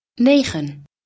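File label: "neXen", Dutch, female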